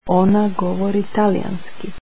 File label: she speaks Italian, croatian